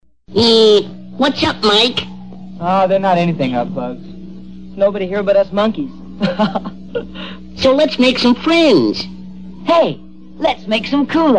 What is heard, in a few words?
Commercial; Kool-Aid; 1969